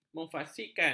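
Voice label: "memfasikkan", Malay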